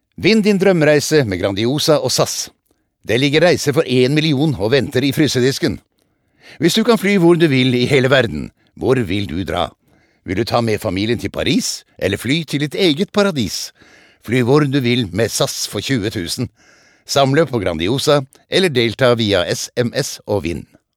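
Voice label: Norwegian commercial hard sell, norsk mann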